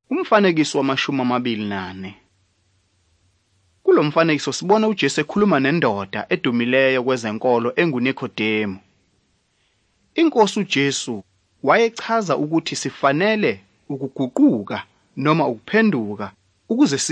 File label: zulu bible; good news